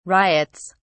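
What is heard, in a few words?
"riots", British English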